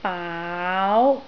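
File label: "baau2", Cantonese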